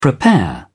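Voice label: "prepare", British English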